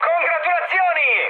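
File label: Italian player killed enemy mood high, speech; War Thunder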